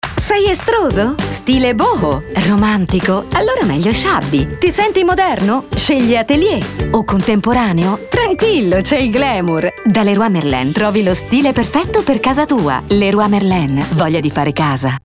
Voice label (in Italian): gioioso; leroy merlin